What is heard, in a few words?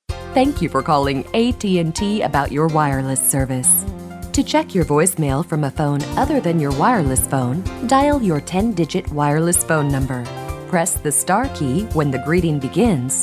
female; TELEPHONE VOICE OVER TALENT